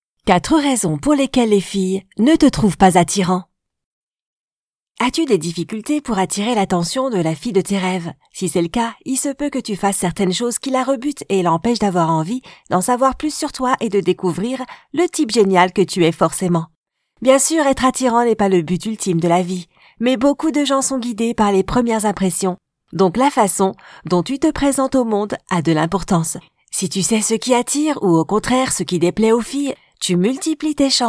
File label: video animation